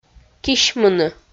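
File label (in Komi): "кисьмыны", Komi, female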